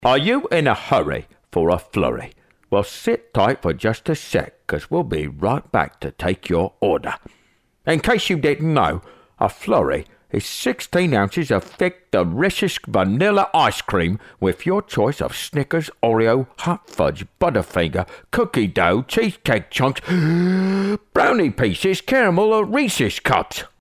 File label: Character; Michael Caine